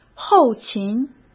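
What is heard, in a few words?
"后勤", Mandarin Chinese; chinese-flashcards; hsk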